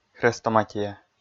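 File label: "хрестоматия", Komi, male; kpv audio dict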